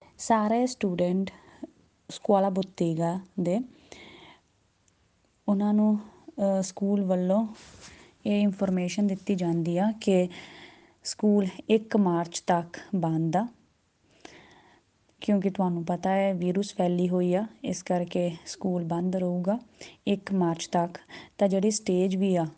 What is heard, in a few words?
ordinanza punjabi